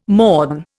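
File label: "morn", Indian English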